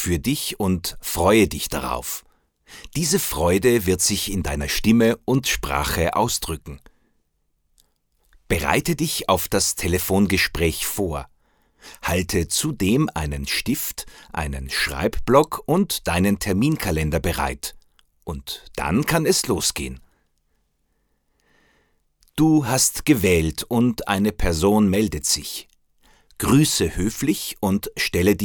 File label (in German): telefonische Bewerbung